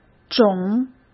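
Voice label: "肿", Mandarin Chinese; hsk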